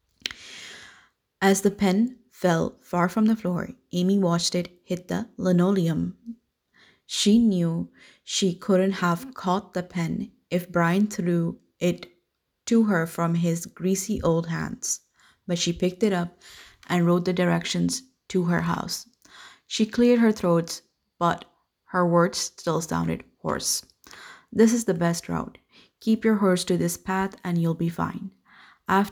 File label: Spoken English recording